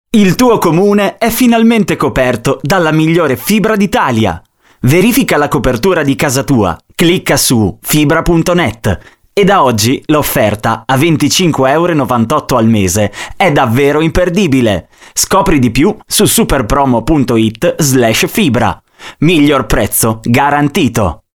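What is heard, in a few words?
Italian, seslendirme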